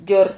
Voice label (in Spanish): "yor", Silabas